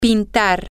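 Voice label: Spanish, to paint, female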